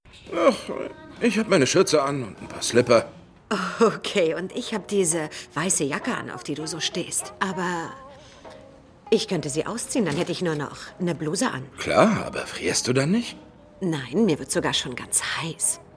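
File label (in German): Synchron, Sprecher, Männer; Lethal Weapon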